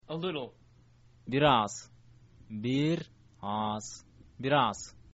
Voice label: a little, kyrgyz

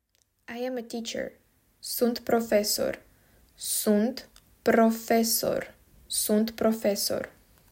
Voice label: I am a teacher, romanian

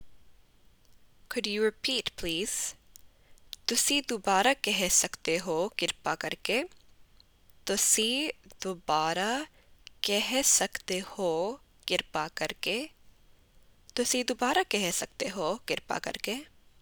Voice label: Punjabi Could you repeat please

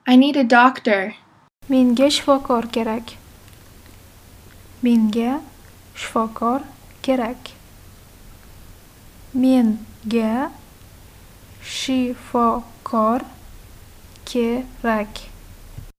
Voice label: menga shifokor kerak, Uzbek; the talking uzbek phrasebook

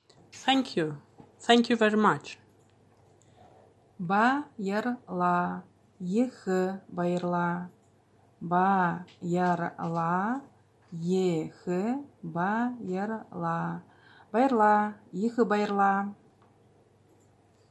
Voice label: Thank you Thank you very much, Buryat

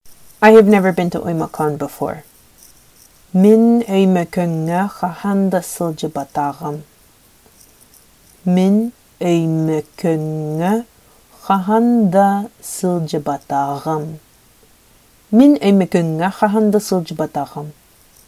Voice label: Sakha I have never been to Omakon before

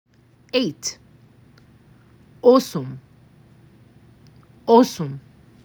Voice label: MAC 6, macedonian phrasebook

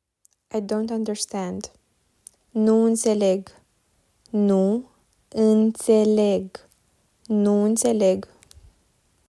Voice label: I dont understand, romanian; talking romanian phrasebook

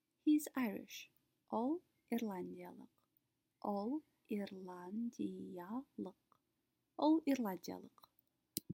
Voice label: Kazakh He is Irish

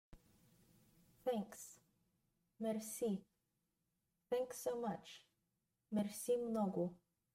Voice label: BGN Thanks Thanks so much, Bulgarian; the talking bulgarian phrasebook

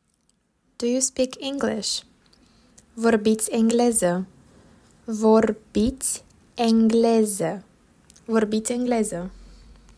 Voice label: Do you speak English, romanian

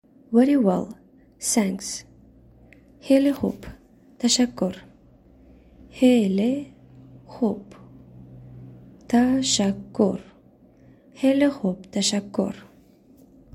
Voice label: Very well Thanks, Tajik

